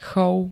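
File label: "chov", Slovak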